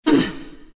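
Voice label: pain, Chinese; dota2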